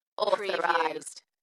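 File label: UK female, Dalekness, voice drop; Authorised; beanstalk audio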